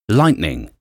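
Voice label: "lightning", English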